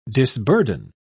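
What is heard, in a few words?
"disburden", British English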